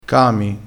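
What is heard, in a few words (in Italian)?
"cami", dialettale crespinese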